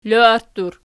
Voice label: "loarttur", archi